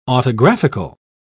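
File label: "autographical", British English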